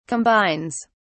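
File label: "combines", British English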